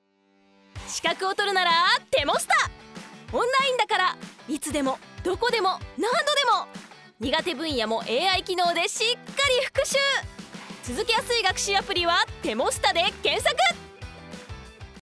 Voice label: narration woman